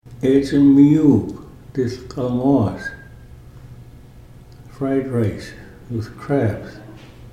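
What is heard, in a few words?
eetsm miyuup dil kalmoos, Ts’msyen; crab fried rice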